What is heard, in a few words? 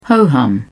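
"ho-hum", British English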